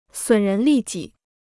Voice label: "损人利己", Chinese

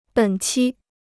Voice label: "本期", Chinese

